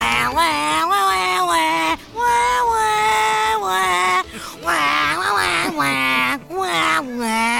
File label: Whiny; Harry Potter